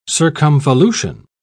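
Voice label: "circumvolution", British English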